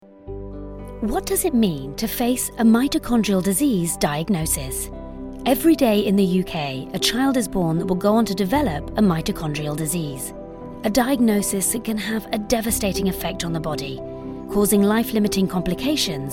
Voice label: Narrative Corporate; Compilation – Various Styles